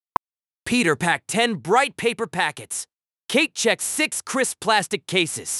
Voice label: plosive speech